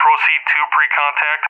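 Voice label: "proceed to pre-contact", English, Tanker; DCS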